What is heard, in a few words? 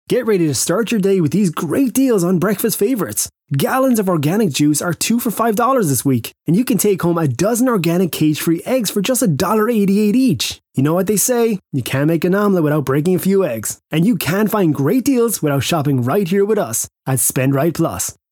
Casual Conversational